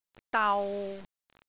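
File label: "dau6", Cantonese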